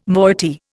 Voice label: "moiety", Indian